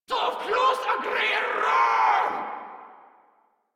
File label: Attack Grineer Female; Warframe